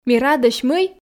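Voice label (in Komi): ми радӧсь мый, Komi, female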